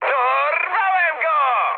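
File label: player killed enemy mood high, speech Polish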